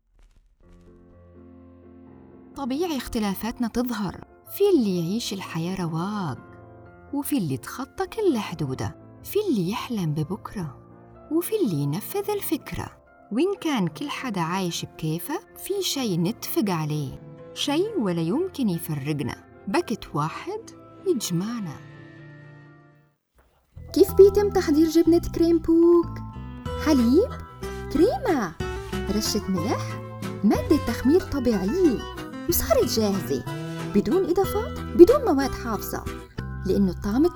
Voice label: arabic dialect خليجي لبناني